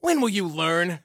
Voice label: Taunt Learn, Soldier Male

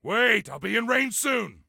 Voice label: Target Wait, Soldier Male; Soldier Male 03

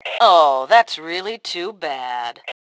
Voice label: Taunt Aww, Female; T2 Female 02

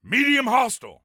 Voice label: Warn, Soldier Male; Soldier Male 03

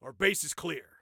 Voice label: Base Clear, Soldier Male

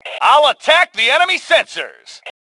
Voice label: Self Attack Sensors, Male; T2 Male 01

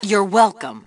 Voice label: Team Anytime, Female; T2 Female 02

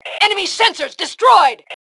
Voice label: Enemy Sensors, Female

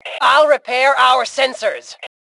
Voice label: Self Repair Sensors, Female; T2 Female 04

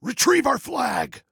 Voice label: Flag Retrieve, Male

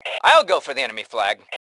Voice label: Self Attack Flag, Male; T2 Male 03

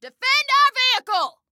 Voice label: Defend Vehicle, Female

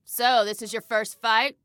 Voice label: Taunt Obnoxious, Female

